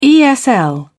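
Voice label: "esl", British English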